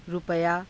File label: "rupayaa", hindi